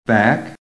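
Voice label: "back", English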